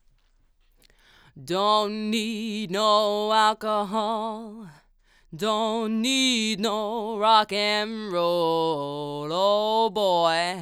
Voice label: Vox Shoot Rode NT1 Card